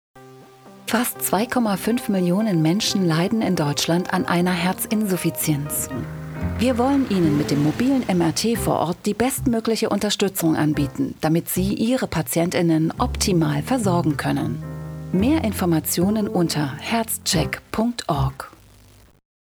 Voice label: german medical narration, voice actors; doubleuse professionnelle allemande; Narration médicale

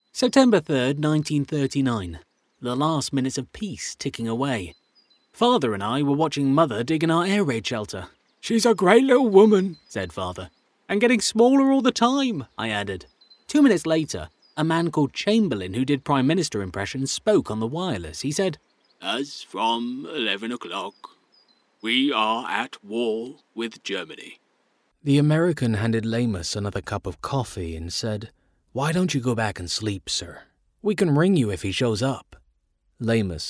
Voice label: english british audiobooks

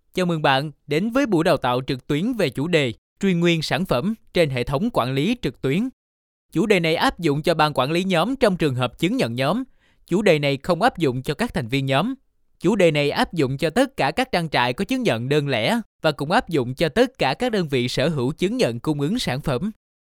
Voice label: Vietnamese e-learning

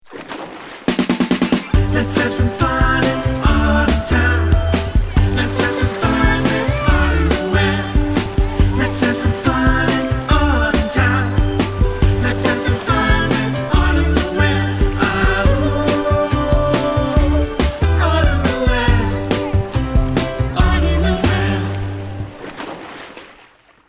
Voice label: english new zealand tv shows

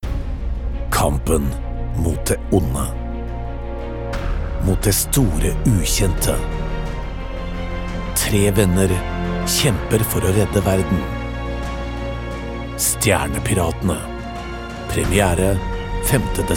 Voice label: norwegian movie trailers

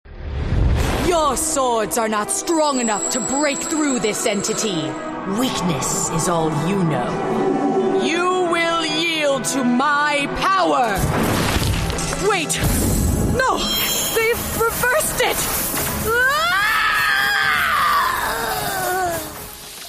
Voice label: english american video games

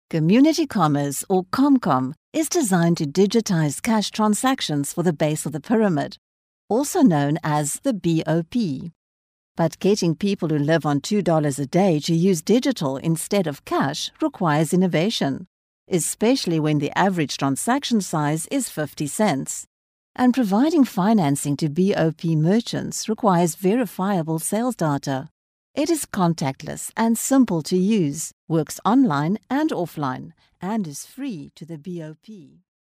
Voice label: english south african narration

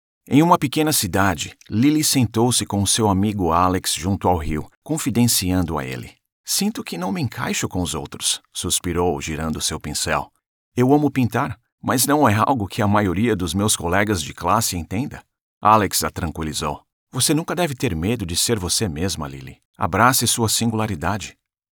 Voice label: portuguese brazilian explainer videos

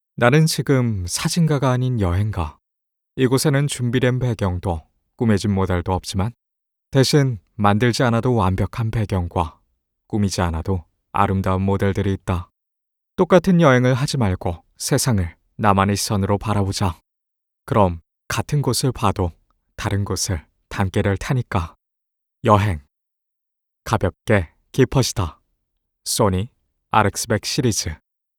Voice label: korean narration

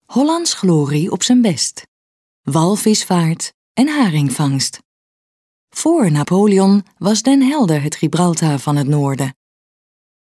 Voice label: dutch corporate videos

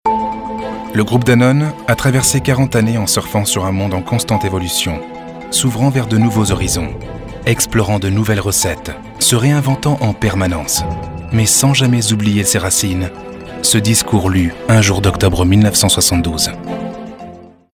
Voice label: french documentaries

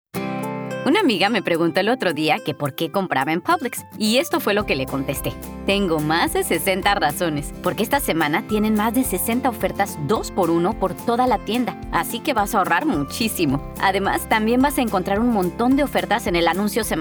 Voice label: spanish latin american

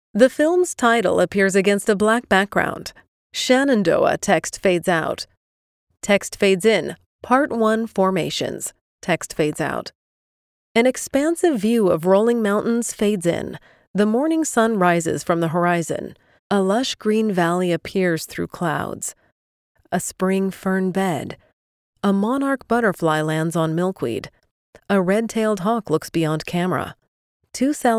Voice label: english canadian others; Andere; Canadian-based voiceover

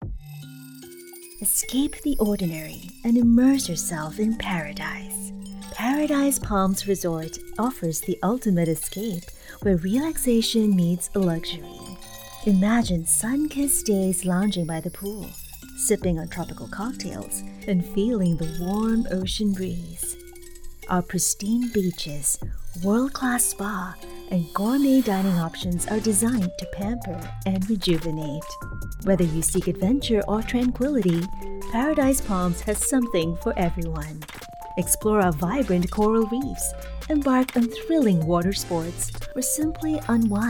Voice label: english singapore; Singaporean

